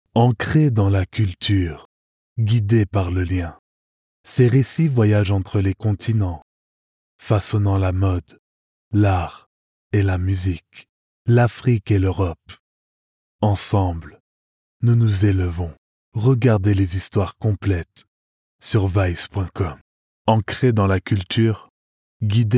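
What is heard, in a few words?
Voice deep, male voice actor; Television Spots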